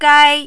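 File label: "gai", first tone, Mandarin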